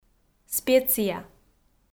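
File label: "специя", Komi, female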